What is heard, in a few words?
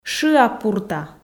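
"шыа-пурта", Komi, female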